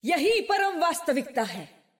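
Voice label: French; 秩序之光（守望先锋）; 终极技能; यही परम वास्तविकता है!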